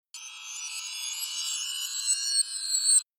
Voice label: reversed, digital voice; sound design